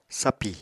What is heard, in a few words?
"sapì", Apatani; [sapì] adj. flattened